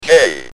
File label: "J", Speak & Spell UK